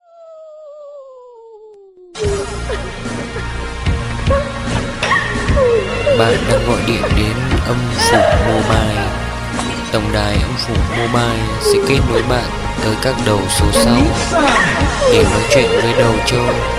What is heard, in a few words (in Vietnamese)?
Nhac chuong tong dai am phu kinh di